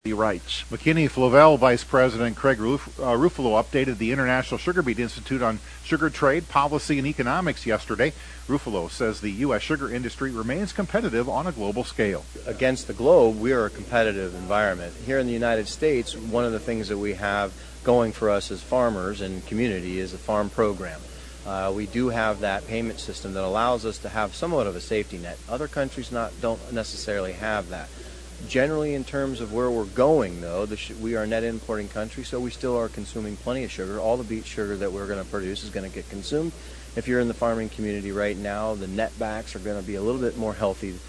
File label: air check; McKeany-Flavell